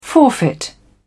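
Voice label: "forfeit", British English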